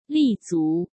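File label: "立足", Mandarin Chinese; chinese flashcards; hsk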